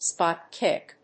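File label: "spot-kick", English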